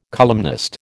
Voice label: "columnist", American

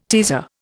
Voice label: "teaser", Indian

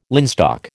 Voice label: "linstock", American

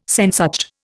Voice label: "Sehnsucht", Indian

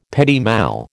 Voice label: "petit mal", American

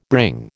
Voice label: "bring", American

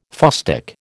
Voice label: "fustic", American

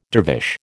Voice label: "dervish", American

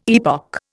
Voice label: "epoch", Indian

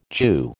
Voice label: "Jew", American

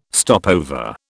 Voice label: "stopover", British

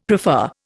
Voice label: "prefer", Indian; Dictionary

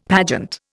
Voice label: "pageant", Indian; Dictionary

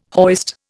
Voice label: "hoist", Indian

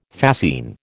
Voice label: "fascine", American

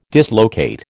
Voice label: "dislocate", American

